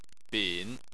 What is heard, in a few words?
"bin3", chinese